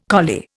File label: "collie", Indian